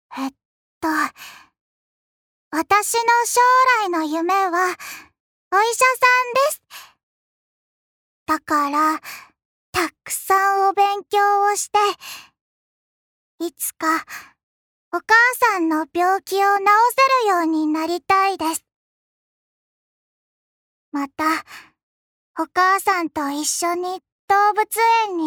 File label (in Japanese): アニメ